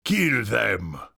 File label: vocal witch doctor dialogue kill them male dry; esmteam